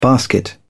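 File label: "basket", British English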